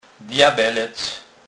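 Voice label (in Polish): "djabelec", Kurpie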